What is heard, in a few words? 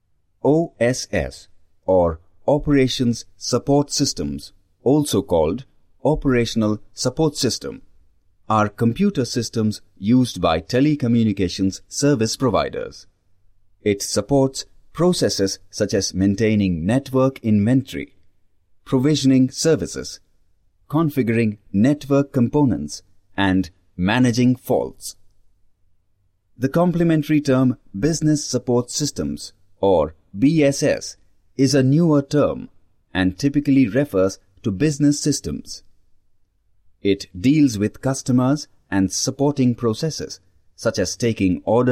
E-learning